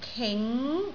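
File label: "king2", Cantonese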